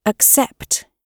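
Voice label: "accept", British English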